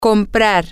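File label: Spanish, to buy, female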